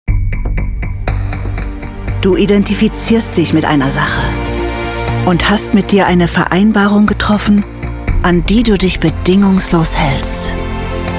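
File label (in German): Werbung Sportswear, Sprecherin; Target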